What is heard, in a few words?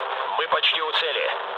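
near waypoint, mood low, Russian; War Thunder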